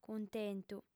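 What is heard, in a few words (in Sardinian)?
"cuntentu", female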